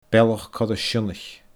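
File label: "Bealach Coire Sionnaich", Scottish Gaelic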